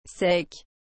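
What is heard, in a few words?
"sec", French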